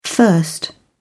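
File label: "thirst", British English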